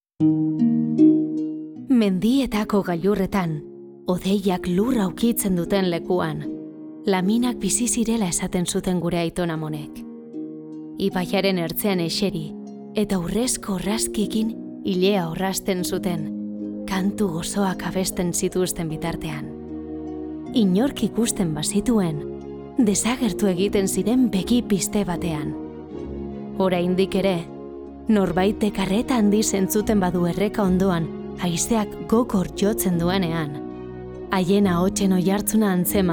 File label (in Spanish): Narrativo Euskera